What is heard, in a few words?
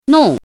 "nong4", chinese